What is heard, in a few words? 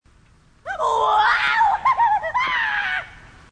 grunt; h2dialogue; grunt crazy